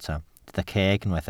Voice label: "ceg", Welsh